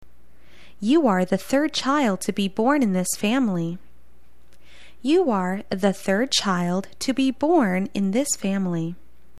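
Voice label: "third", English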